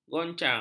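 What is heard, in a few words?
"goncang", Malay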